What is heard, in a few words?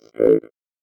VOICEFX ELCTR 140; Techno2